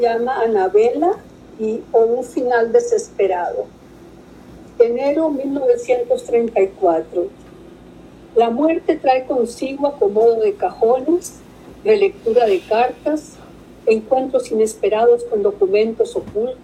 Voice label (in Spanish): Narracion